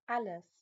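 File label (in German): "alles", German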